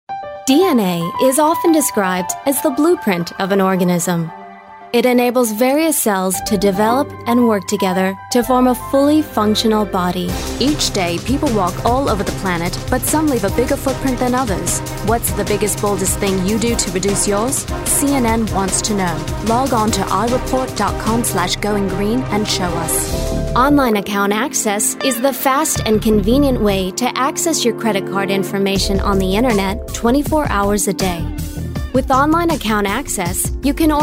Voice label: corporate

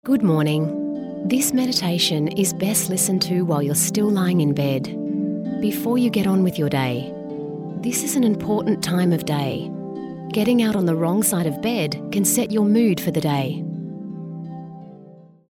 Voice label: MEDITATION, voices

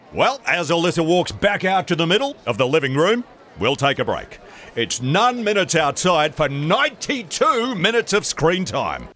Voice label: Commentator; EM VOICES

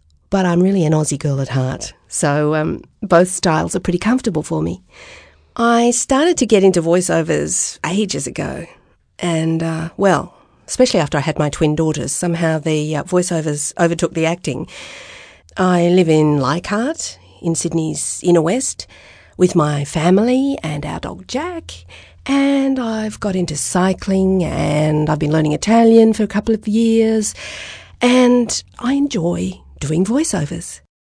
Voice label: natural; EM Voices

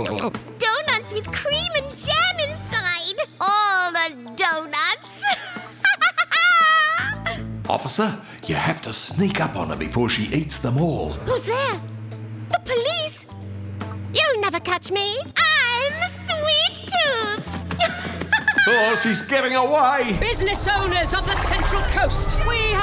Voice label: Voice Acting

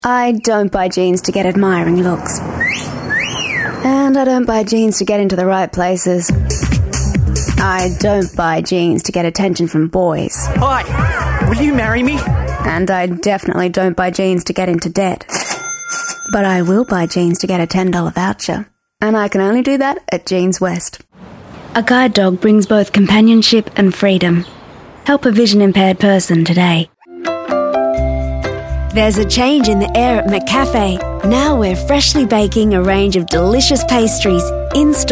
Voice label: natural

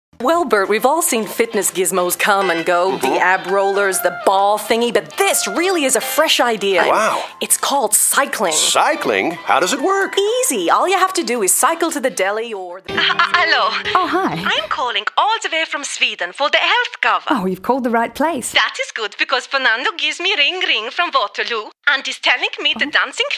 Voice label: character; EM Voices

